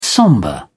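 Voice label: "sombre", British English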